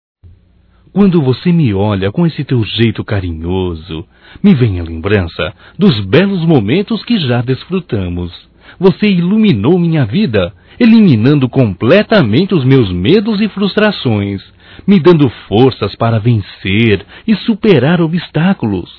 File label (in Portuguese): Romântico Masculino